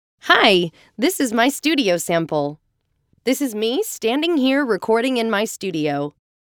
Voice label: Raw Studio Sample